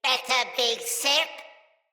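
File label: See Player Corrupted Sprouts, en; Battle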